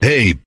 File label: "hey", Voice